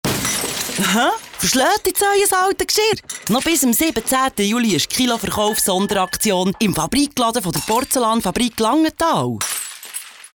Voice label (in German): Werbung CH BE